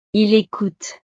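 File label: "il ecoute", French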